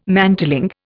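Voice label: "mantling", Indian English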